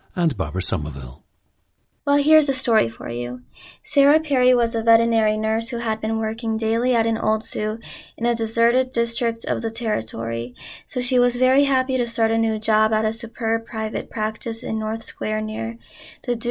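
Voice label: maryland, International Dialects of English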